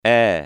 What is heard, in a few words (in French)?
e ouvert